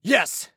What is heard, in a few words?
"Yes", Soldier Male